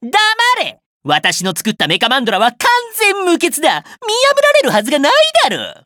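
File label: info, Japanese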